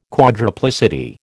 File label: "quadruplicity", American